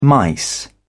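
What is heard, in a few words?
"mice", British English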